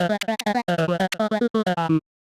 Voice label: medium clear jolly, voice archetypes; voxel audio preview